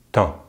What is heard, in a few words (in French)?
"temps", French